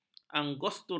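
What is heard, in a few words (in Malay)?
"angostura", Malay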